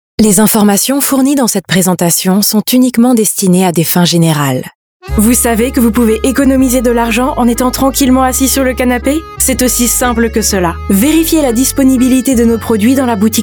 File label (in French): FR; French